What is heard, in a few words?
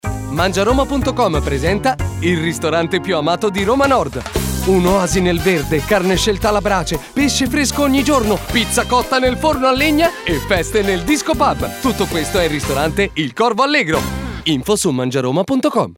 SPOT; MANGIAROMA